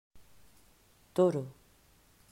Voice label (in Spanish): "Toro", pronunciacion; mausschool